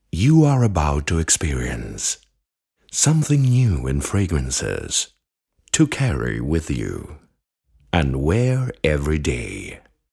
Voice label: Ingles (Britanico)